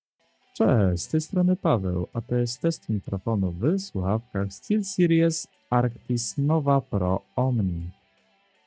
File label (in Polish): test mikrofonu deep glosno, steelseries arctis nova pro omni; test recenzja